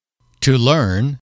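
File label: "to learn", English, male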